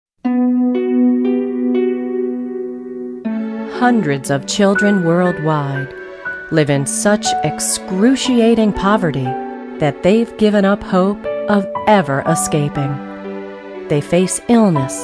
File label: COMMERCIALS